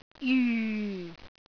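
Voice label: "jyu4", Cantonese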